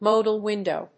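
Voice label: "modal window", English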